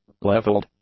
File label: "levelled", english